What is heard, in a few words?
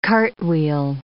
"cartwheel", English